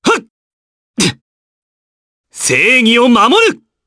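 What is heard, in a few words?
vox get Japanese; Clause; kingsraid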